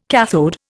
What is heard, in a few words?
"cathode", Indian